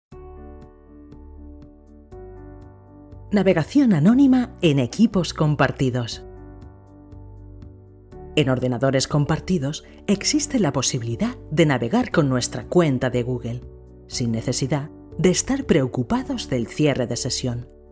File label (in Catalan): elearning, locutora